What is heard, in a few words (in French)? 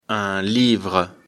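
"livre", French; afrikalan; lecture fr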